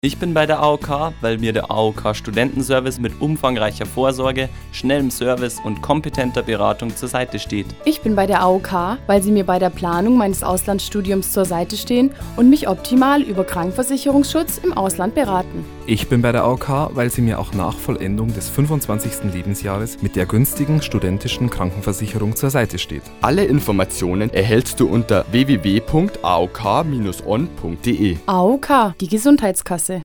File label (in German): Werbung; AOK